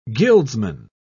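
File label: "guildsman", British English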